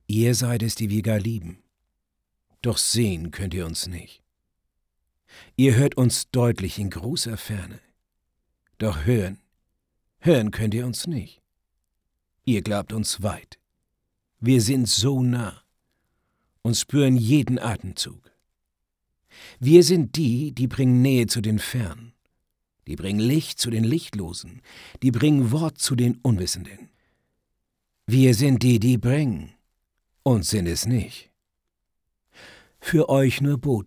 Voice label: hamburgerisch, maenner; Akz+Dialekt

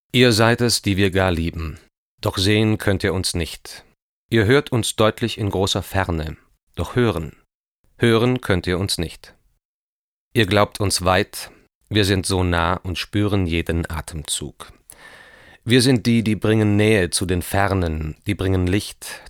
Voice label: sachlich, maenner

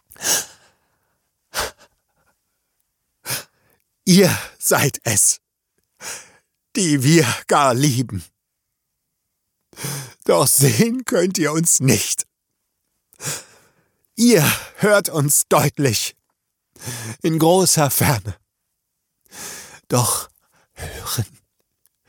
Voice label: traurig, maenner; Sprecher

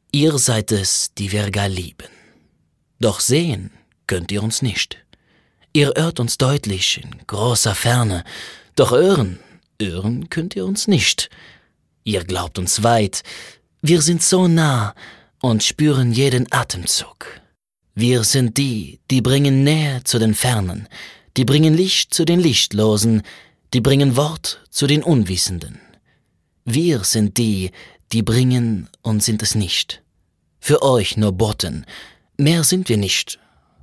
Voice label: Akzent französisch, maenner

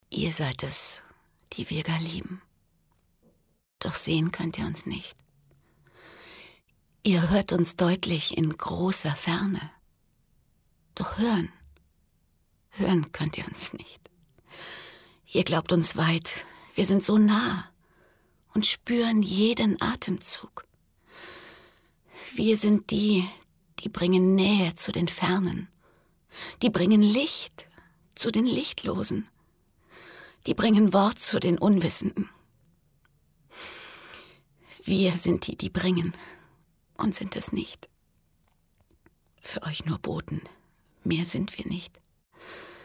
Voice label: traurig, frauen

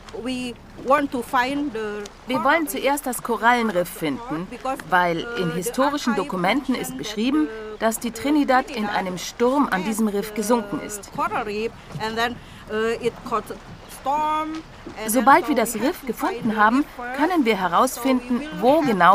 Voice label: Voice Over, frauen; Magellans Reise

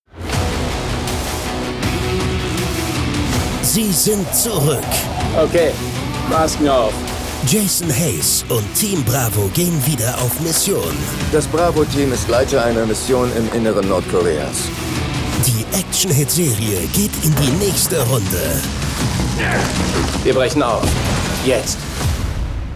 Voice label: Trailer, maenner; SealTeam

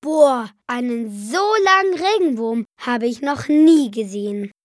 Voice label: jungen; Was ist Was - Die Zeit

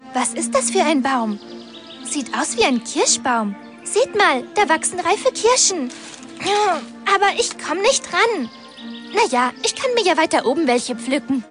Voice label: Hörspiel, Frauen; Wunderweltenbaum; Connie

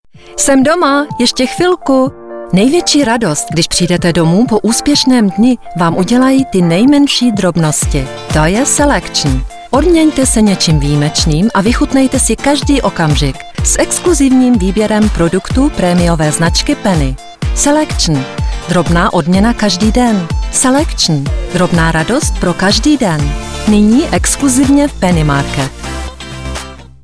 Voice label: Werbung mit Musik; Penny

